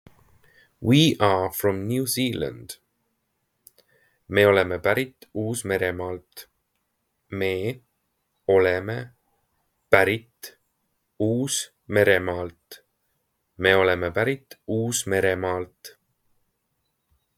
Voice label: We are from, Estonian; We are from New Zealand; the talking estonian phrasebook